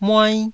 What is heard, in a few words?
"muai1", taiwanese syllables